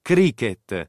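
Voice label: "Cricket", Italian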